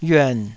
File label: "yuan3", taiwanese Syllables